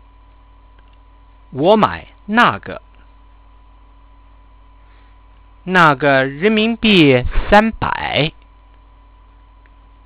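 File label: Shopping conv, Chinese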